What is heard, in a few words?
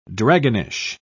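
"dragonish", British English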